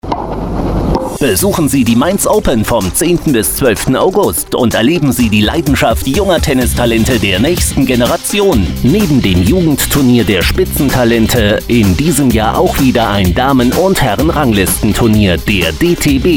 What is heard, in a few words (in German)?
Radiospot